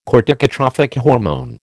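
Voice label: "corticotrophic hormone", American English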